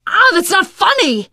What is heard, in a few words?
friendly fire, teen girl voice; source engine; left 4 dead 2; survivor